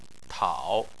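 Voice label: "tao", Chinese, third tone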